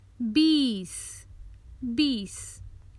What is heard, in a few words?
Common Hindi Phrases for travel Twenty